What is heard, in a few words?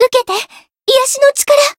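Voice heal, Japanese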